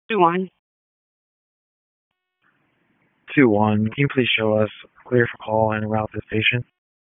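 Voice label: EMS Dispatch, p25; wake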